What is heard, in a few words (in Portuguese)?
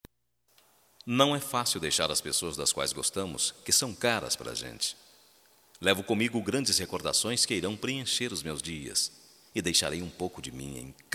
DESPEDIDA M, Só Voz, masc; Telemensagens; Telemensagens 2017